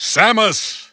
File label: Samus English Announcer; SSBB